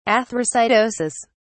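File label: "athrocytosis", English, uk British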